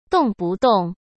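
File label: "动不动", Mandarin Chinese; hsk; chinese flashcards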